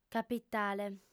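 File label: "capitale", female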